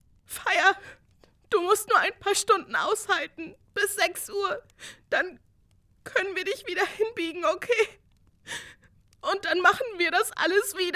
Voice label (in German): Hoerspiel; Existence